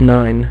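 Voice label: "nine", male; MacCribbage; InterStellar MacCribbage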